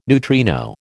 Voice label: "neutrino", American